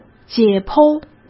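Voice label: "解剖", Mandarin Chinese; hsk; chinese flashcards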